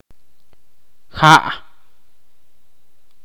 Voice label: "agua" in Ch'orti'